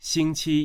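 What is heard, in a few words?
"xing1qi1", Mandarin Chinese, male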